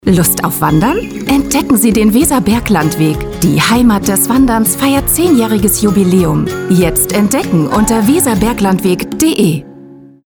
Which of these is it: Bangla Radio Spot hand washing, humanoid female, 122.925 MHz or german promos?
german promos